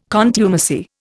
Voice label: "contumacy", Indian